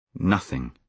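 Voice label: "nothing", British English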